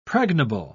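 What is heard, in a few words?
"pregnable", English, British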